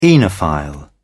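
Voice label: "oenophile", English, British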